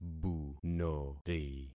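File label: "bunori", concatenated syllables; Affixing experiment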